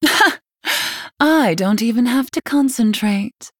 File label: Female Night Elf; Arcane Missiles